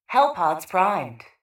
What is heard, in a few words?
PA System Hellpods Primed